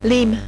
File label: "lime", French